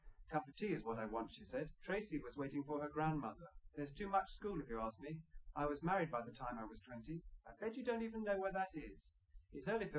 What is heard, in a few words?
male liverec